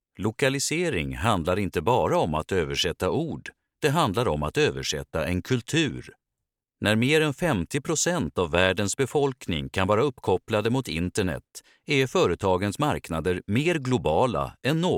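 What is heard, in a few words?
Swedish, narration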